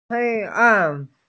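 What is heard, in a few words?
"darkness", Mandarin; hei1an4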